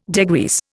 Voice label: "degrease", Indian

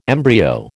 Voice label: "embryo", American

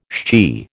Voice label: "shchi", American

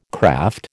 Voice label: "craft", American

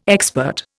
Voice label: "expert", Indian

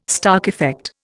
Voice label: "Stark effect", Indian

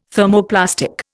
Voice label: "Thermoplastic", Indian

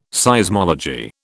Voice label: "Seismology", British

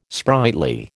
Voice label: "sprightly", American

